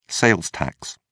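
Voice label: "sales tax", British English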